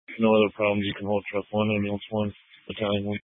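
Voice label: Incident Tactical 2, Scanner audio recording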